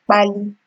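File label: "kpali", Nigerian Pidgin, female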